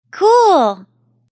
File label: Girl Says Cool; Talking